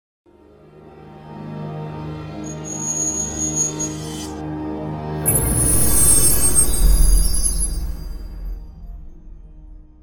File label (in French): Version Espagnol, Voix Off